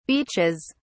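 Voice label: "beaches", English, British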